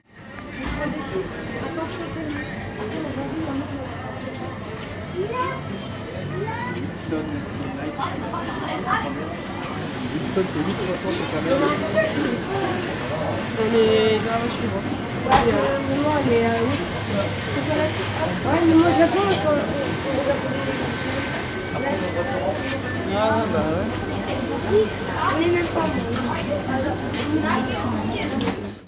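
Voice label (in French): à la station service ça a parlé français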